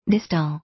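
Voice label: "distal", English; 発音 dístl ディストゥ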